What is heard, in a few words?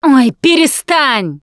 taunt, russian, Survivor Voice Producer